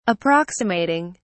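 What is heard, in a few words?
"approximating", British English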